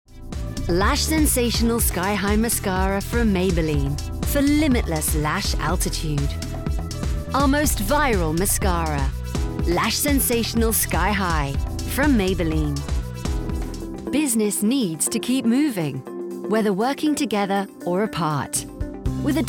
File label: Commercial